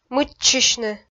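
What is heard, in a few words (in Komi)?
"мыччысьны", Komi, female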